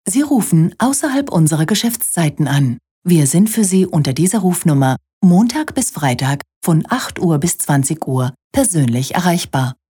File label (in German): DE ANRUFBEANTWORTER DRY